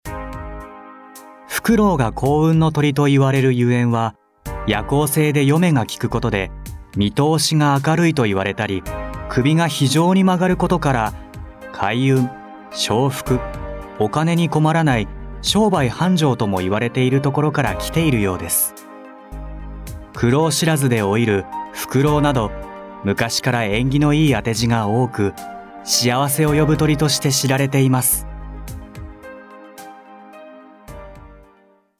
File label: nare bangumi